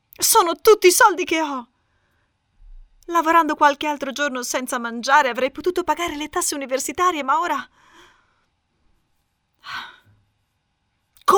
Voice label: locutora italiana italian voiceover; locutores italianos

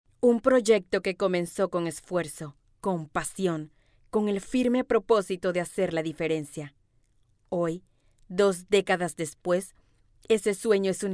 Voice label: locutora Panama Panamanian voiceover; locutroa de Panamá; Locutores panameños

